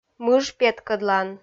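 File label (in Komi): "мыж петкӧдлан", Komi, female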